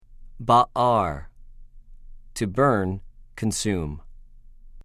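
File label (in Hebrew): "בָּעַר", Hebrew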